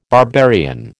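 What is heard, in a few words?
"barbarian", American English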